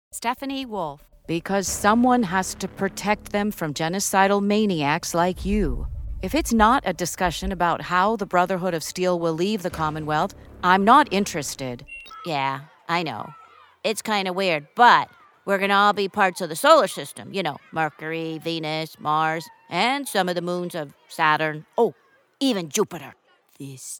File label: character anime